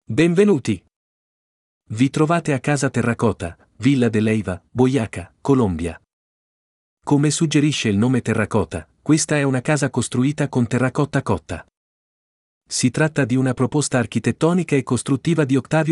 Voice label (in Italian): Italiano